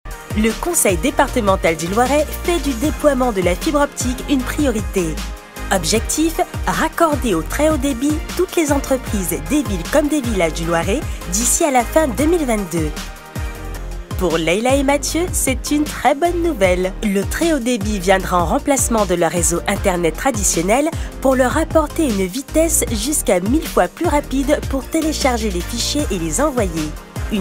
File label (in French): Motion design Explicatif convivial dynamique, voix off; Le déploiement du très haut débit THD